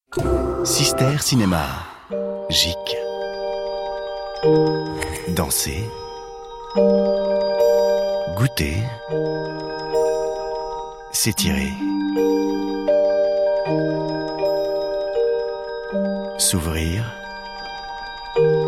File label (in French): ton doux Voix; 6ter; Microcosmos